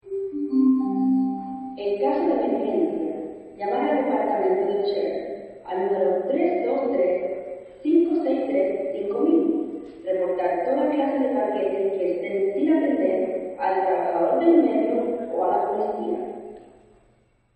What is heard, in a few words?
Subway Female Pa Annoucement In Spanish; La Metro Subway Female Pa Annoucement In Spanish; Subways; Vehicles; Michael Huang